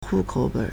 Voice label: "hu4 kou3 ben3", Chinese